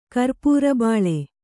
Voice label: "ಕರ್ಪೂರಬಾಳೆ", Kannada

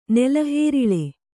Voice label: "ನೆಲಹೇರಿಳೆ", kannada

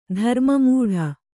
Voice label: "ಧರ್ಮಮೂಢ", Kannada

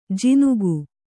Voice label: "ಜಿನುಗು", kannada

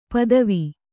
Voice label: "ಪದುವ", Kannada

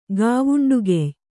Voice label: "ಗಾವುಂಡುಗೆಯ್", Kannada

